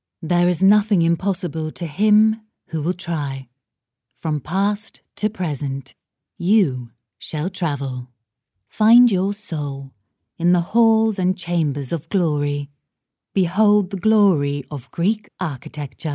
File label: english british tv shows